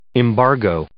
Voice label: "embargo", English

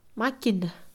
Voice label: "máquina", Mixe, Mujer; Moderno; INALI

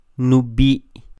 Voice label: nub'i, Quiché; mi nombre; my name; INALI; UTexas; CHQETA

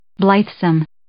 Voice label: "blithesome", English

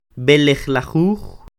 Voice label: "B'elejlajuj", Quiché; diecinueve; nineteen; INALI; UTexas; Números

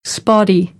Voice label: "spotty", American, English

